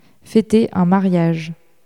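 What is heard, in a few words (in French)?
"feter un mariage" French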